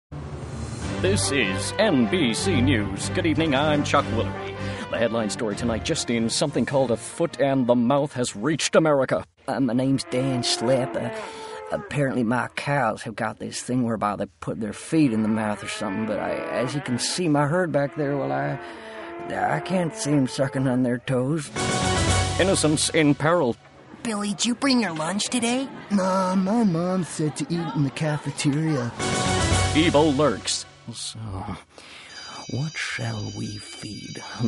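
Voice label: animation; 20-30s. US. Young, friendly and warm. Speaks fluent Arabic. Home Studio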